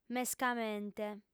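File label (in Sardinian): "mescamente", Sardinian, female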